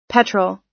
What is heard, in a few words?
"petrol", us